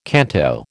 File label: "canto", American